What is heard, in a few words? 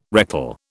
"rectal", British